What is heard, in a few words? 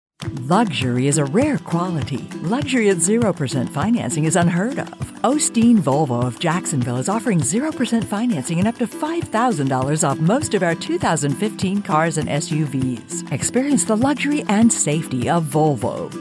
english american automotive